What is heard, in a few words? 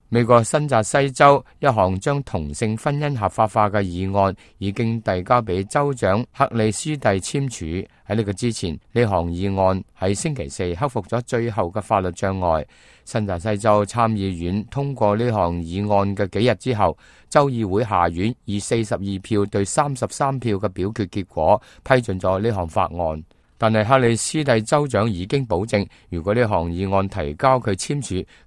cantonese, news